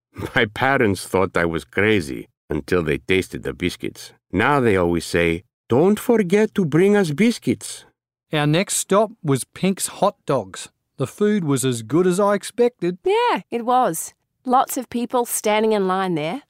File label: English With an Accent